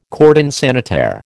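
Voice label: "cordon sanitaire", American English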